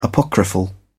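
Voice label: "apocryphal", British English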